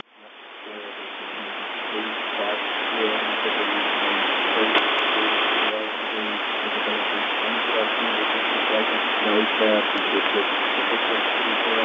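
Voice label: Hong Kong VOLMET English USB; 13282,0; CHN; Hong Kong VOLMET, Cape d´Aguilar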